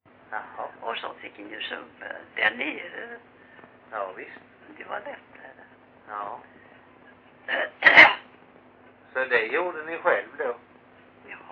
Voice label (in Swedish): Grimeton, dialekter; Folkminnen